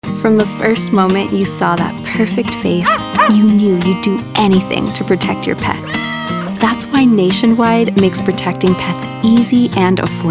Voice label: women, commercial